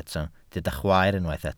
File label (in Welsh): "chwaer", Welsh